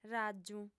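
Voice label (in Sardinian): "ràgiu", female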